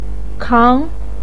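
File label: "kang" second tone, chinese, voice pinyin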